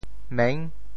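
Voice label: "meng6", Teochew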